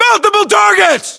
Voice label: threat infantry multiple, battlechatter, English, US